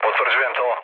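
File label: Serbian player killed enemy mood low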